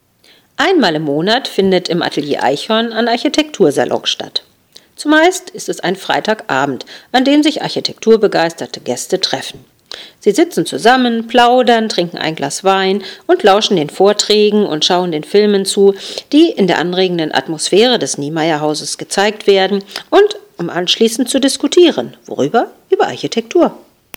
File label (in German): Sprachmemo; Salon